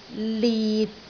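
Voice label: "lit6", Cantonese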